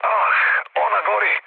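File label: Serbian on fire mood low, speech; War Thunder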